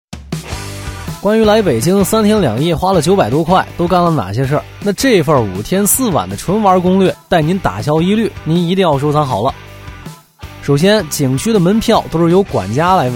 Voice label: 北京话男; 素人自然

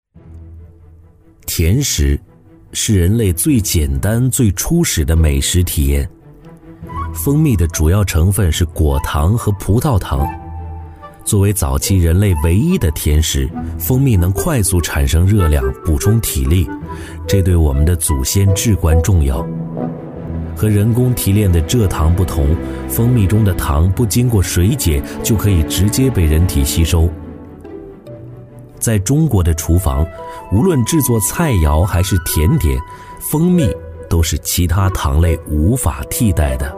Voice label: 男 纪录片 沉浸美食; 磁性稳重 舌尖感; 舌尖上的中国

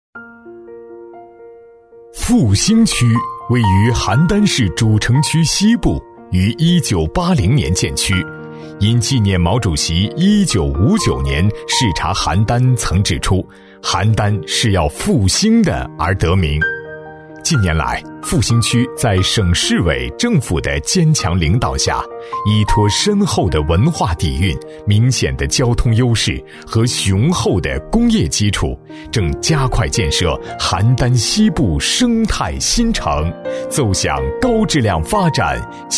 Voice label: 男 城市宣传 大气抒情; 浑厚大气

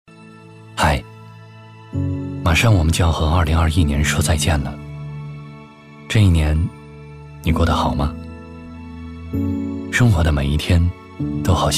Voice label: 男 走心旁白 温馨低沉; 跨年; 男59-刚柔并济; 温和抒情

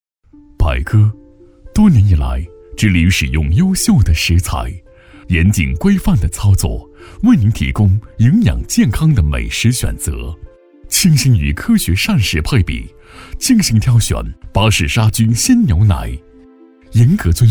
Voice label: 男 舌尖风格, 大气浑厚

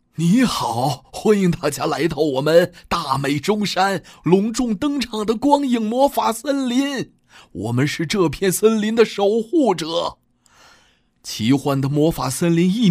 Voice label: 男 角色 老人; 魔法森林; 年轻活力; 素人自然

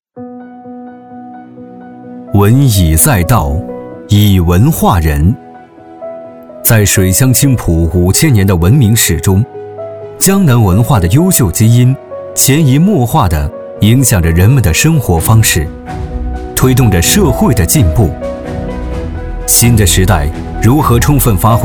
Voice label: 男 党建 庄重肃穆; 浑厚有力 大气浑厚; 卓越文旅

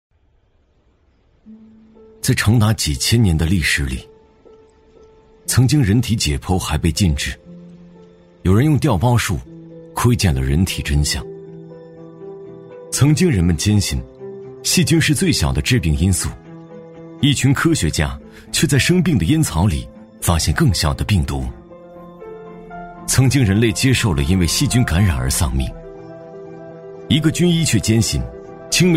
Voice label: 男, 纪录片, 第三人称讲述; 高端磁性 纪实记录; 超脑

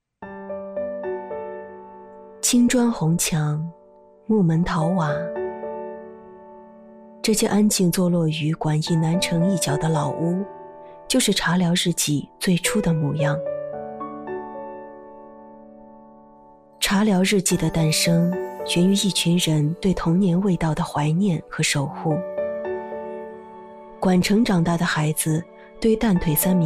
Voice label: 女 自述旁白 讲述感; 《茶聊》

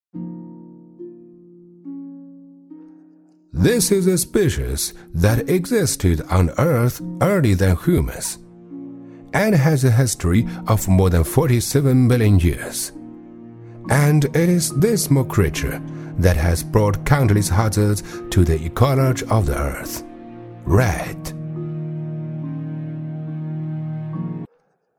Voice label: 男 英文 BBC风格; 中英双语; 大气浑厚

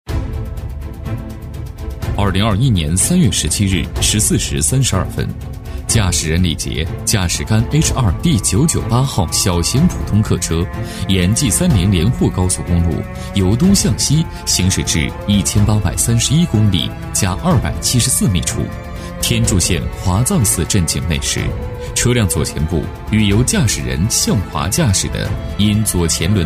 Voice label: 男 事故回顾 道路交通事故 紧张严肃; 浑厚磁性

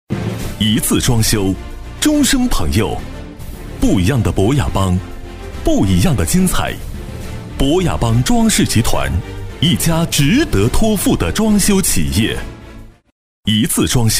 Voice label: 男 装修广告 激情 厚重; 博雅邦装修集团; 磁性质感; 大气激昂

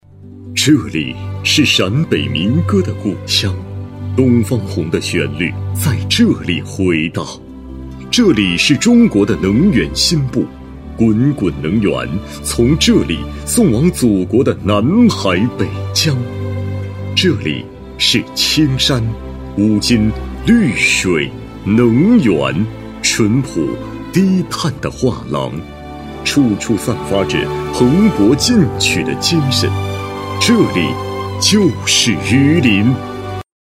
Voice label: 男 城市宣传片 浑厚有力度; 大气浑厚; 榆林

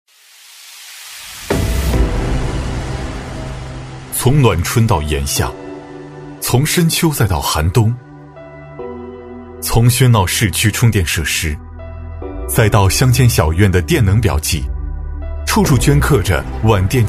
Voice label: 男 企业宣传 低沉有力磁性; 皖美计量人

